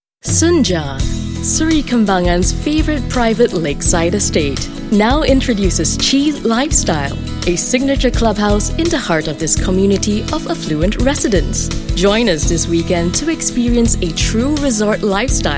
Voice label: ENG; BRDB; SENJA SERI KEMBANGAN